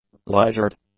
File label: "leisured", english